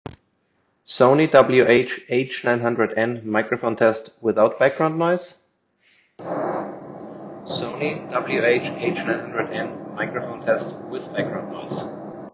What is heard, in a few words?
Sony WH-H900N microphone test